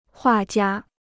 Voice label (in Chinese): "画家", Chinese